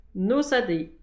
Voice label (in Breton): "noz ha deiz", distagadur; petit precis de prononciation